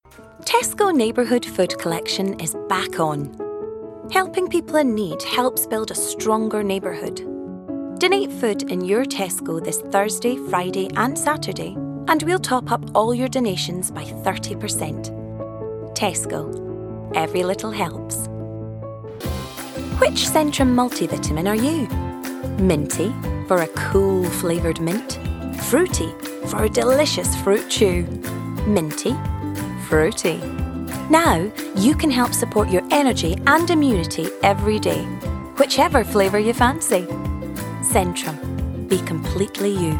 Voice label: Commercial